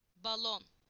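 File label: "balloon", Turkish; PictureDictionary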